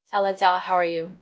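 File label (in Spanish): Voice Acting, es, female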